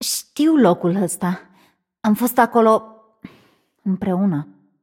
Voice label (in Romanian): Room2 VO Puzzle View, vo, ro-ro